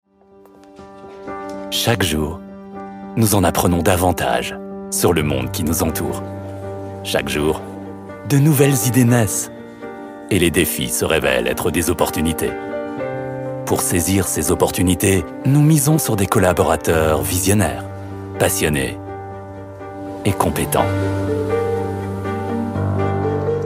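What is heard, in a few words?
French, Sanft; Fremdtake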